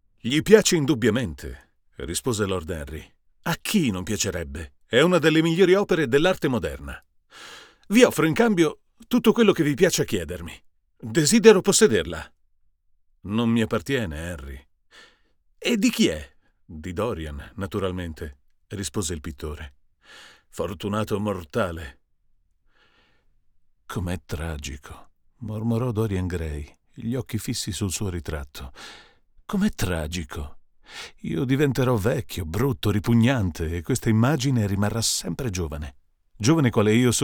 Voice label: Apogee Mic per confronto U87AI

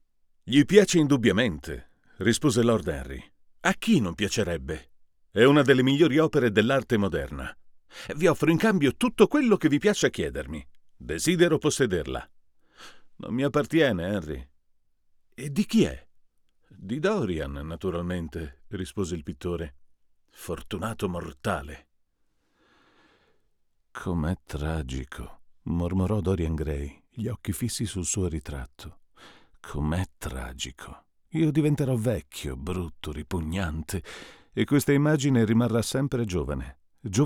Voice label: U87AI per confronto con Behringer B2Pro

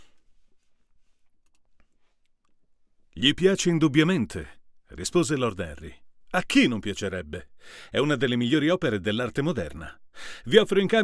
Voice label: S87 DIY per confronto VS U87AI

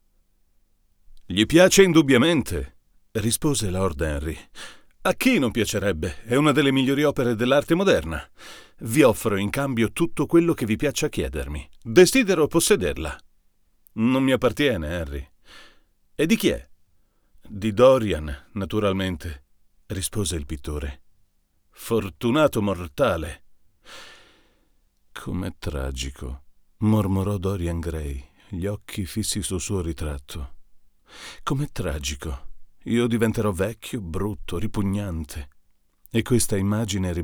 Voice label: sE200 per confronto VS U87

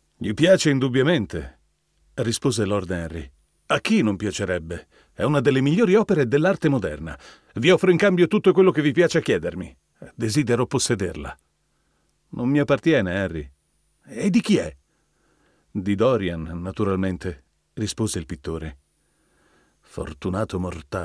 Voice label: Sennheiser MD 441-U per Confronto U87AI

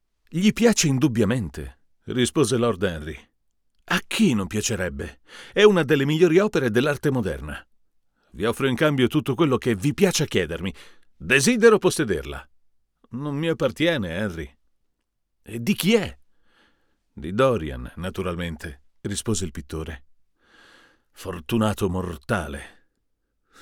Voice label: U87AI per confronto con SeX1R

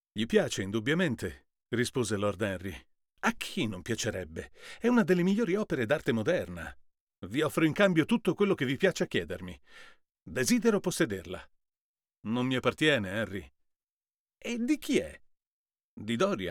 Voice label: U87AI per Confronto SC1000

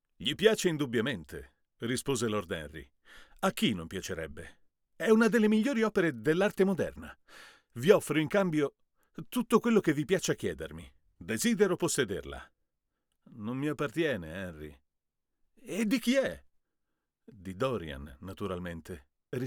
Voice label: NT2 per confronto U87AI

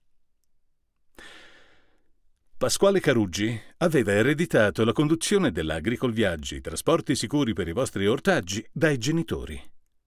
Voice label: U87Ai per confronto con Reinassance47 capsula RK87

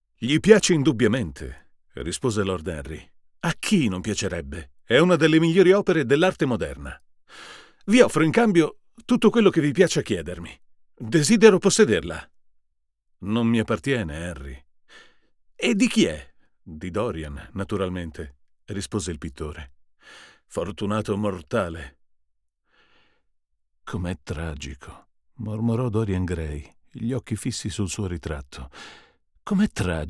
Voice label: U87AI per confronto Apogee Mic

